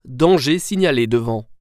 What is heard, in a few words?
Approach Hazard, french; waze